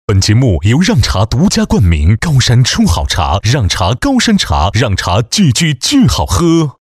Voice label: 男 电视剧片花

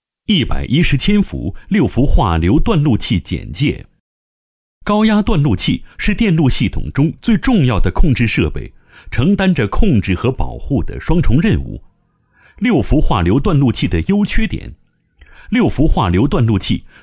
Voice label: 男-110千伏6氟化硫断路器解说词

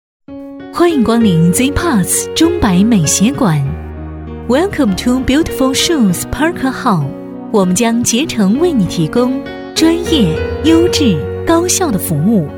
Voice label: 女 电话彩铃 知性大气 中英文; 质感知性; 成熟知性

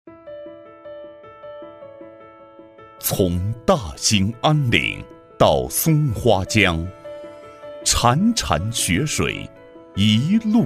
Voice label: 男 旅游宣传片 舒缓; 哈尔滨

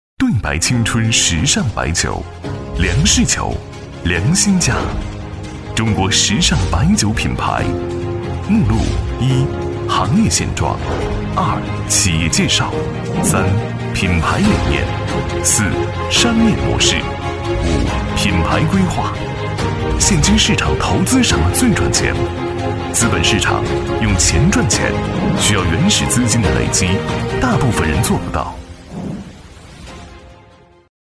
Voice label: 男 酒宣传片 大气稳重; 对白青春时尚白酒; 男29-时尚大气 大气浑厚

